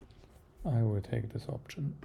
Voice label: neutral